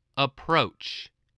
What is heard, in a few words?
"approach", English